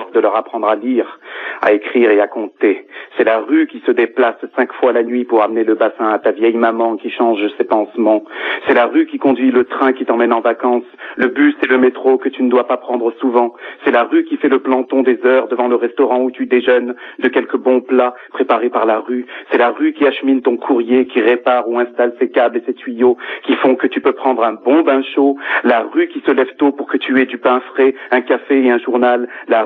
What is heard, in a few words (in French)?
France d en bas repondeur